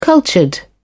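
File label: "cultured", British English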